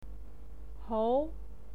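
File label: "hong", Mandarin Chinese pinyin, second tone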